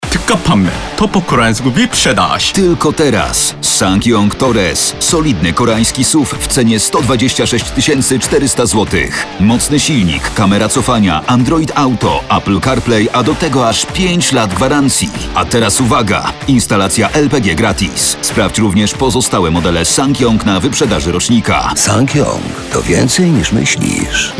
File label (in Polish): reklama wyprzedaz torres; ssangyong